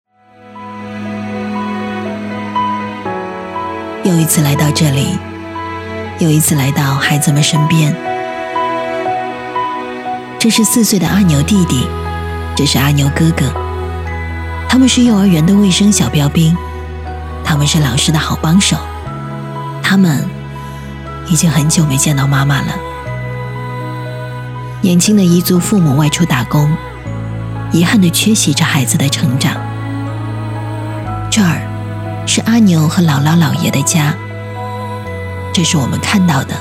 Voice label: 女 纪录片 自然讲述; 走近大凉山

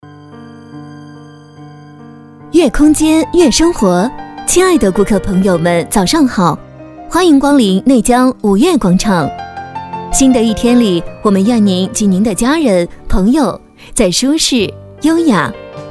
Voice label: 女 语音广播 酒店商场 迎宾词

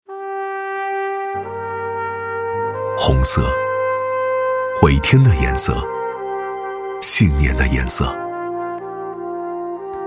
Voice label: 男, 专题; 回天新材

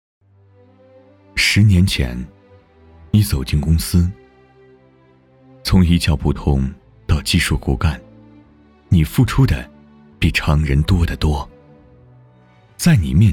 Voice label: 男 舒缓

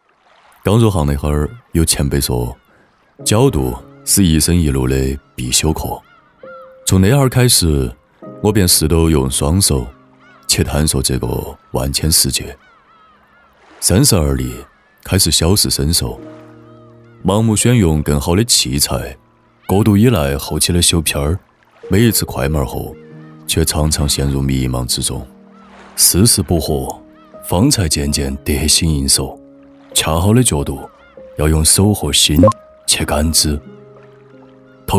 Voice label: 男 四川话; 恰好的角度

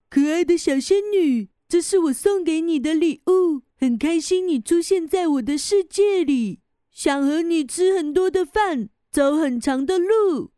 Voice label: 女,角色模仿; 蜡笔小新

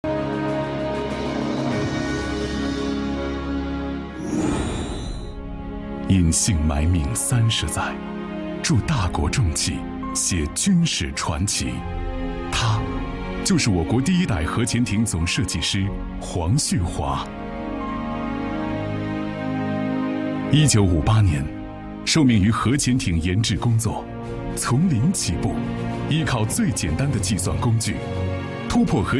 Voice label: 男; 央视致敬国家荣誉和国家勋章获得者黄旭华

